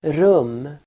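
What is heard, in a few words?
"rum", Swedish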